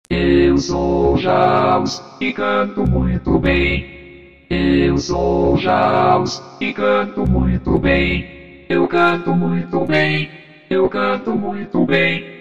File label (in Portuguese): Jaws cantando em português, vozes SAPI